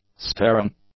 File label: "staring", English; adjectives